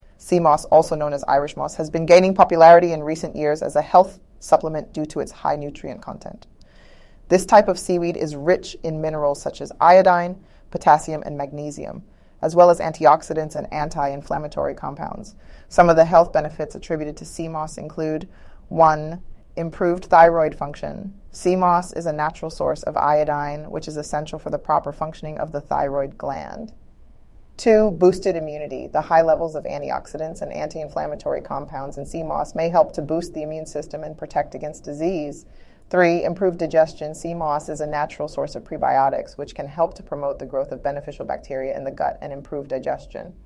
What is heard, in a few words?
synthesized audio